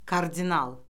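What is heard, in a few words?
"kardinal", Azerbaijani; Orfoqrafiya Lüğəti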